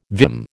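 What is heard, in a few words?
"vim", American English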